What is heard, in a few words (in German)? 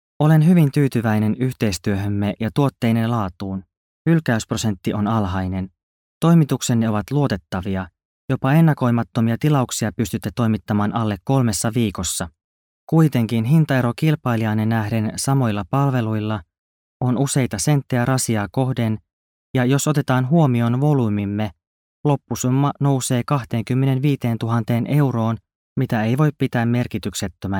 Nachvertonung Film Sprecher Finnisch